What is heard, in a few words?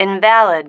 "invalid", female